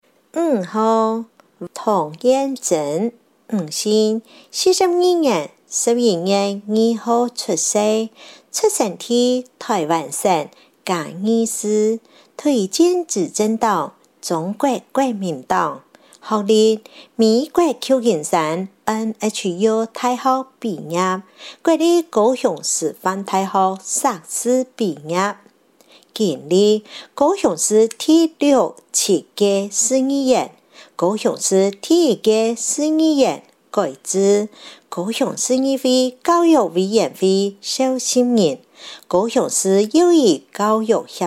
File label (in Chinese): 客語; 高雄市; 高雄市市長議員有聲選舉公報; 第七選區